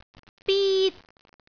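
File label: "bit1", Cantonese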